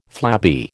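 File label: "flabby", American English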